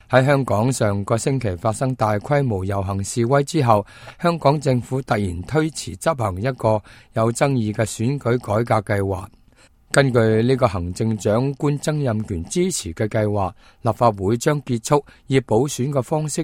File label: cantonese, news